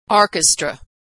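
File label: "orchestra", American English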